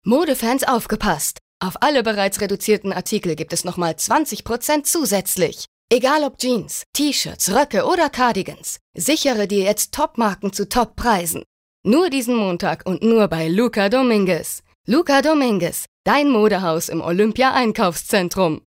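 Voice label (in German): advert, Freundlich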